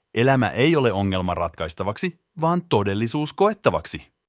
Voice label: finnish, spoken language

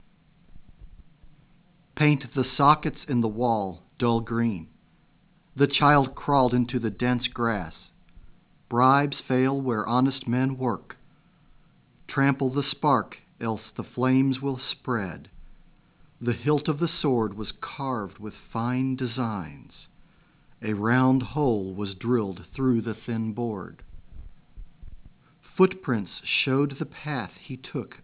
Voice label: en speech

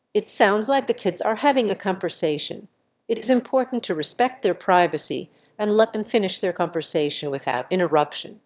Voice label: answer, emotion

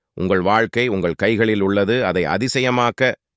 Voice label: tamil; ta-tamil

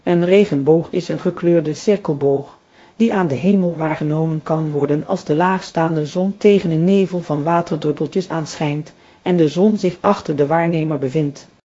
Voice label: speaker, nl NL

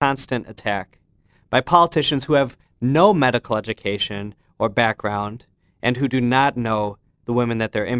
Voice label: Radio

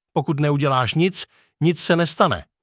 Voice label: cs czech, spoken language

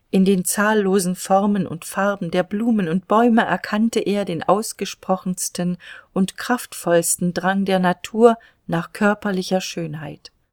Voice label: german female

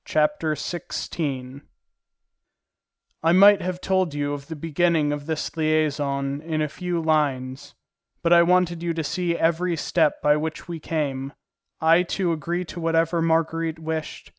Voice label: english

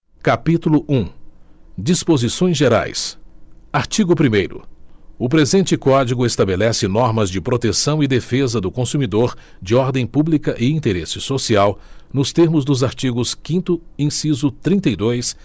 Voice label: speech, ptbr

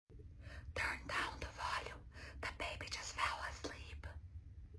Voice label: Whisper English

